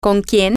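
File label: with who, Spanish, female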